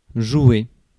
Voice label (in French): "jouer", francais